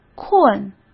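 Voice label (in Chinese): "困", Mandarin Chinese; hsk; chinese-flashcards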